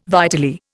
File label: "vitally", Indian